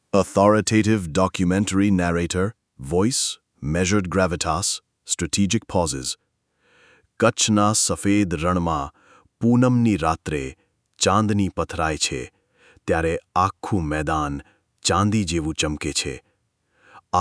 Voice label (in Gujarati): documentary, Gujarati